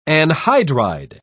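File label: "anhydride", British English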